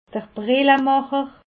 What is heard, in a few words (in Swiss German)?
"brillemacher", alsace